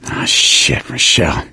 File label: survivor mourn, survivor voice; Left 4 Dead 2; Source Engine; video games; gambler